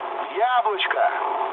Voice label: bomb success, mood low, speech ru; War Thunder